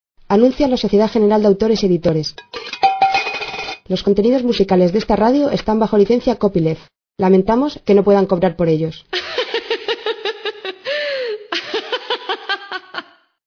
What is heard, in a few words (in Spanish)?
anuncio mujer